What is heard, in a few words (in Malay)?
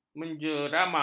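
"menjeramah", Malay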